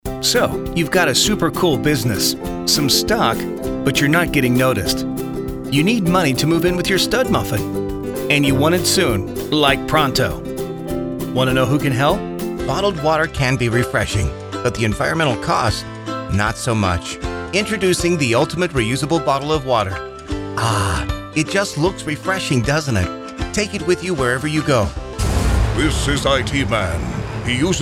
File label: Explainer